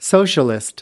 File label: "socialist", us